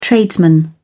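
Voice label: "tradesman", British English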